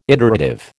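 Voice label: "iterative", American English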